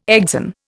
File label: "exon", Indian